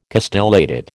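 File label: "castellated", American English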